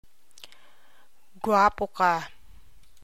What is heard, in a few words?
Tagalog Translation You are handsome Guwapo ka.